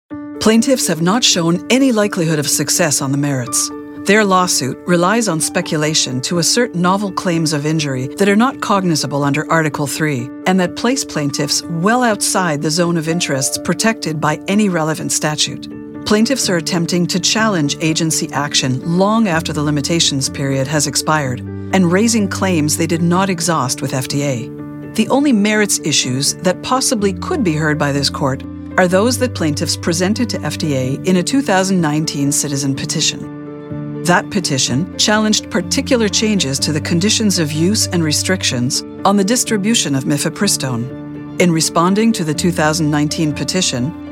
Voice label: english canadian documentaries

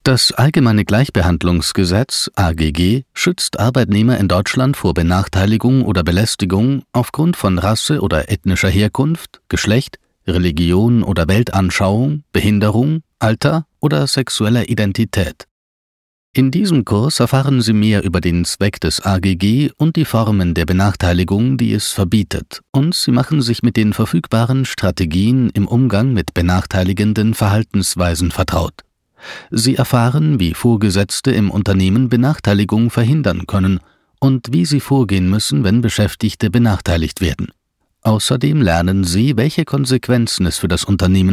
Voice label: german e-learning

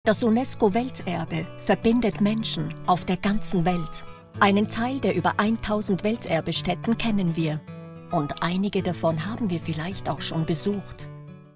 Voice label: german documentaries

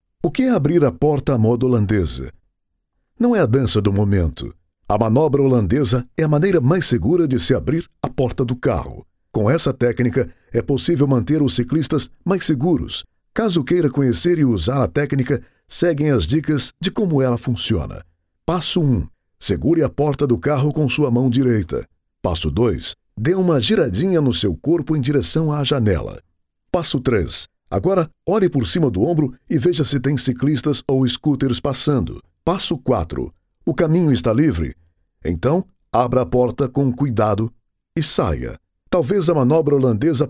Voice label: portuguese brazilian automotive

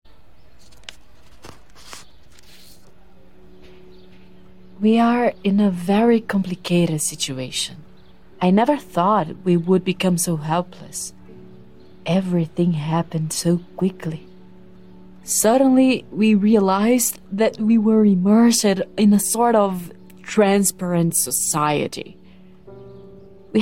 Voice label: english narration

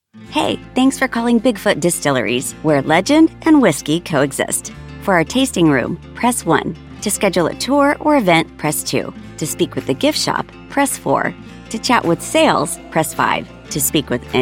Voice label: english american ivr

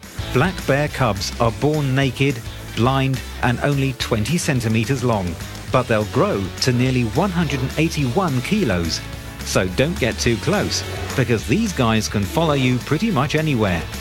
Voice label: english british documentaries

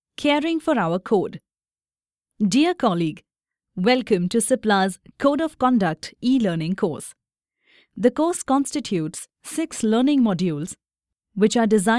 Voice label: english indian e-learning

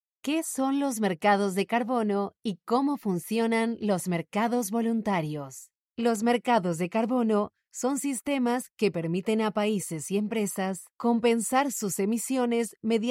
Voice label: spanish latin american e-learning

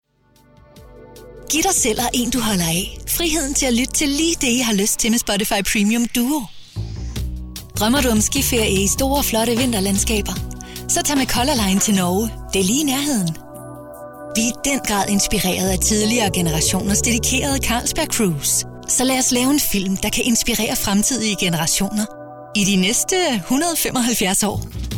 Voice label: danish commercial; Dänisch; Dänemark; Hochprofessionelles Voice-Over-Talent und -Schauspielerin in Dänisch und Englisch

